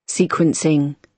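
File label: "sequencing", British English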